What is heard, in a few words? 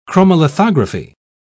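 "chromolithography", British English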